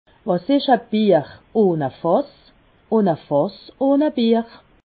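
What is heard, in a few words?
"bierihnefass", Haut Rhin; qu’est-ce qu’une bière sans tonneau et un tonneau sans bière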